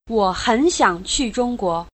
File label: Mandarin Conversation